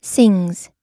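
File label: "sings", American English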